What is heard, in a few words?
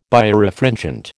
"Birefringent", American